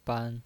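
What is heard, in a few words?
"ban", Mandarin Chinese, first tone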